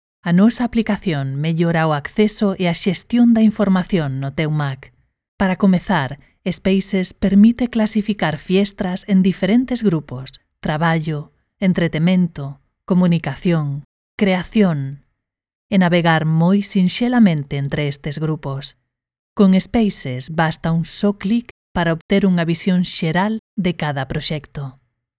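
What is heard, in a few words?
CORPORATE GAL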